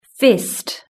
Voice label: "fist", British English